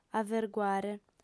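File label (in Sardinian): "averguare", female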